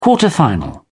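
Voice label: "quarter final", British English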